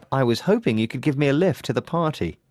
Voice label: polite request